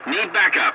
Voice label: voice message cover me, english; War Thunder